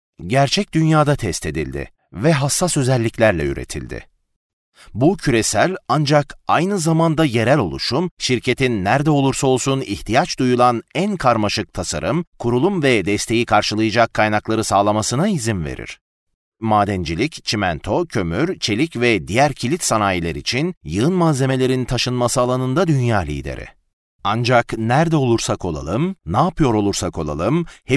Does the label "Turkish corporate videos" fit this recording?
Yes